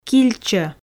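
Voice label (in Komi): "кильчо", Komi, female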